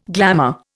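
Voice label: "glanders", Indian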